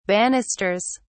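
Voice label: "banisters", British English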